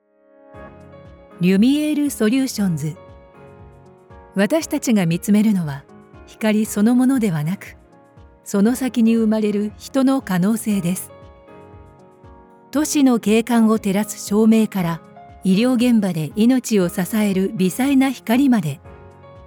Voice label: narration woman